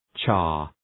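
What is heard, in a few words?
"char", English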